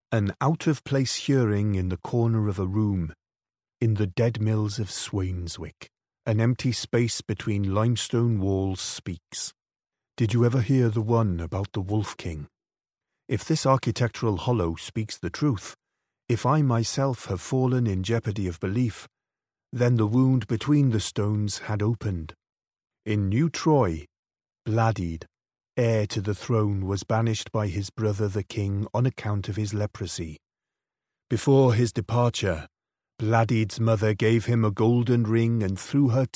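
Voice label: English Welsh male, welsh english voice over talent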